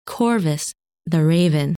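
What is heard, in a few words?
"Corvus", English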